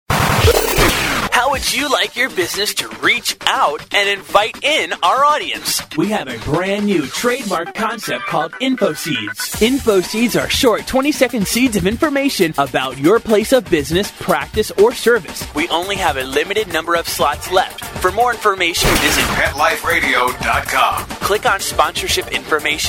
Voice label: COMMERCIAL male; Infoseed; PetExpo